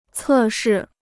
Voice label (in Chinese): "测试", Chinese